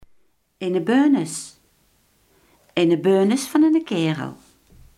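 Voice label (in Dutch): iemand die groot is, Limburgse gesproken woordenboeken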